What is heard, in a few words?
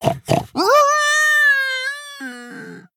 Male Quilboar Death